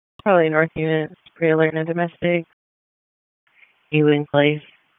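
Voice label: Raleigh Police Northeast District Dispatch; wake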